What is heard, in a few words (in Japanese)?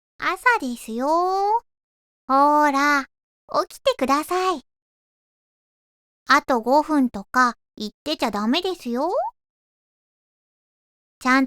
イメージボイス 起動音、目覚まし系; 椛